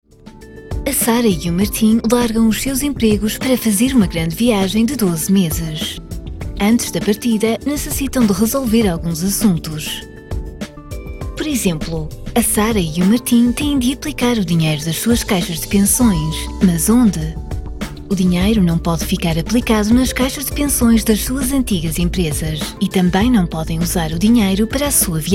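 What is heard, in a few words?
European Portuguese, Corporate; GoLocalise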